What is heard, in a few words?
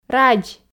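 "радз", Komi, female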